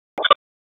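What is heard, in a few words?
Police Spec Ops Tac, radio; Raleigh; Wake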